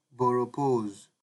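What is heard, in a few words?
"borrowpose", Nigerian Pidgin, male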